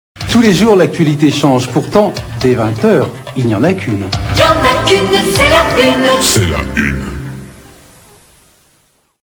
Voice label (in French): promo; 1987; tf1